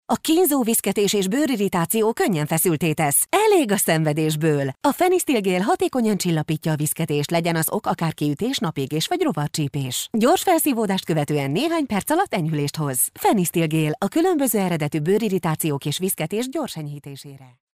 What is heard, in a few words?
HU F commercial